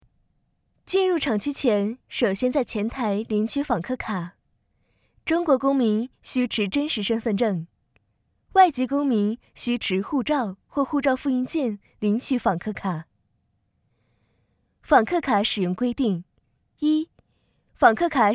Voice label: 安全解说试音

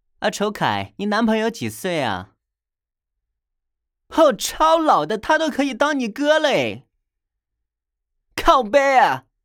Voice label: 台湾腔

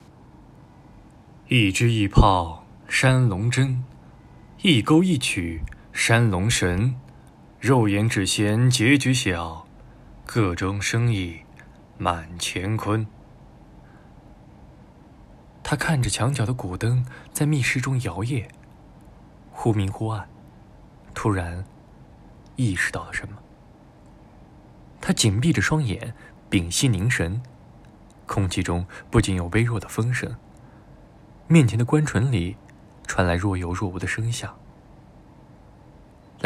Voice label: 悬疑

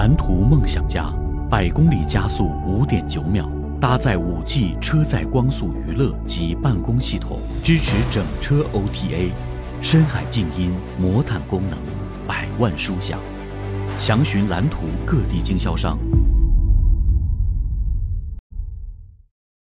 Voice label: 汽车全国广播通版广告; 岚图汽车

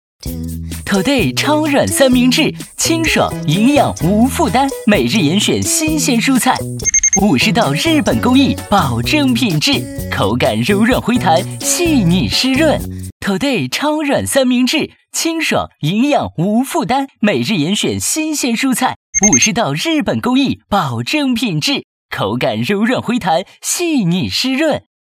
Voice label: 轻快感 时尚旁白; Today三明治

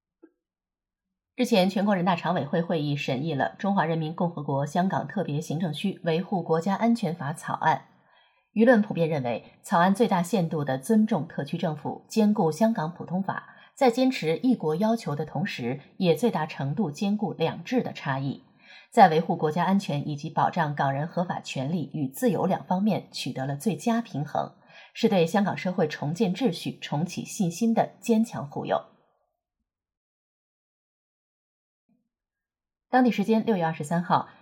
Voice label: 新闻 播报

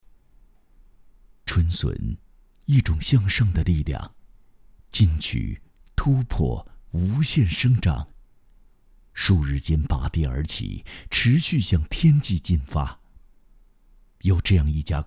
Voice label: 内心独白类微电影广告; 春笋

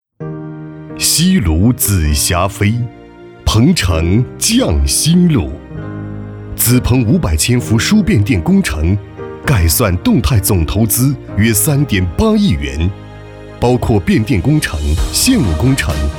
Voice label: 宣传片浑厚电力; 宣传片·浑厚电力·紫蓬

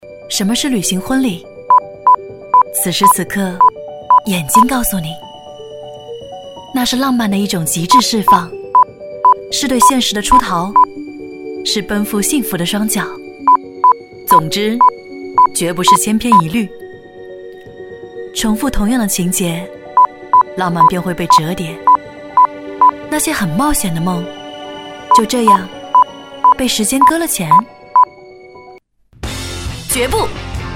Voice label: 广告 旅行 婚礼 走心 热切 反差

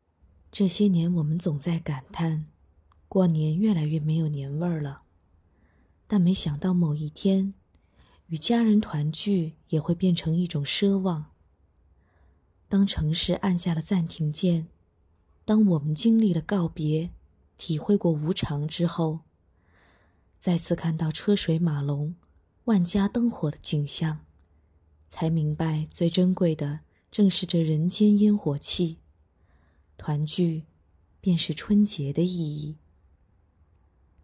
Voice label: 自白旁白

